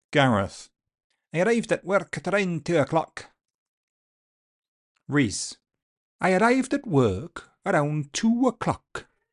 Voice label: Welsh